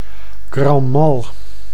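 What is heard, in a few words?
"grand mal", Dutch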